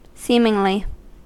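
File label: "seemingly", American English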